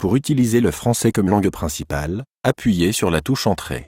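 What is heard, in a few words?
Voice Over Instructions French; Language Chooser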